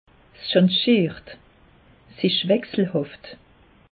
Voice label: "schangiert", alsace; meteo

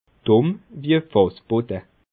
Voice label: "dumm", Bas Rhin

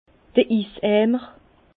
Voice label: "iseimer", Bas Rhin; vin; seau à glace

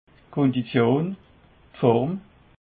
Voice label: "kondition", alsace

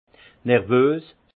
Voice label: "nervos", alsace; vin; nerveux

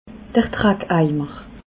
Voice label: "drackeimer", alsace

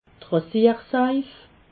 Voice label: "rasiersaif", alsace

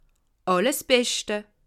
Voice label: "beschte", alsace

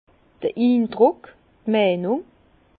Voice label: "indruck", Bas Rhin; impression; vin

